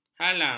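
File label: "halang", Malay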